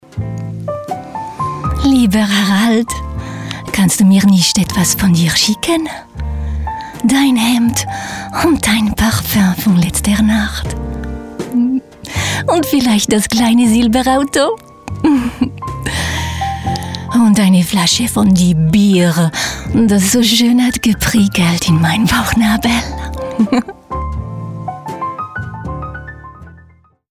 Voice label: german

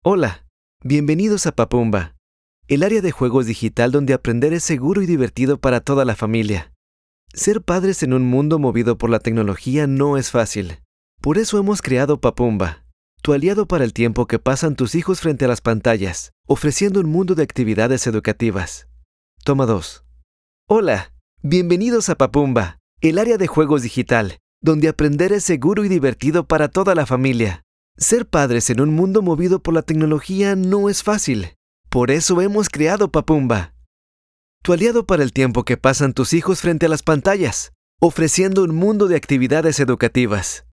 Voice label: spanish latin american e-learning

